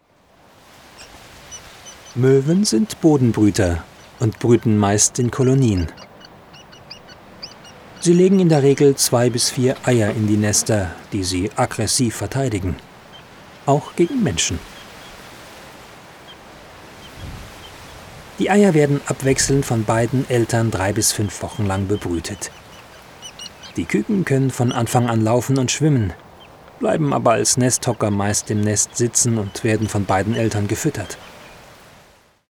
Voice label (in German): Dokumentation